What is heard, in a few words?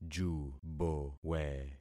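"jubowe", concatenated syllables; Affixing experiment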